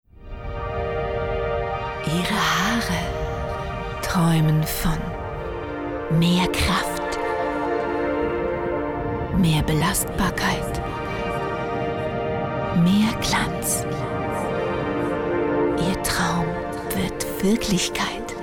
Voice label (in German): Werbung Haare